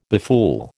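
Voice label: "befool", American English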